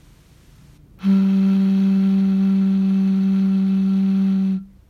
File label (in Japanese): 低音